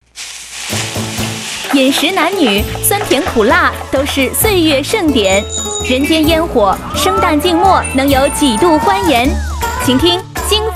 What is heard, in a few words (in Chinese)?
公益 女